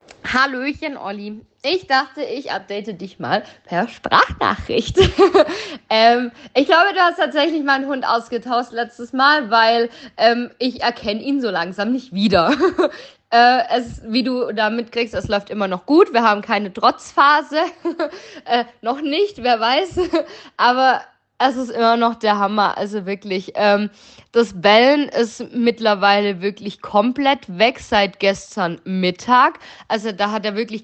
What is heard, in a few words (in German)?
Kundenstimmen